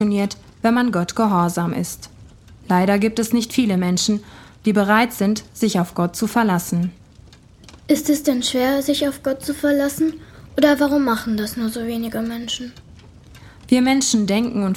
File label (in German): Hoerspiel; ein brief von jesus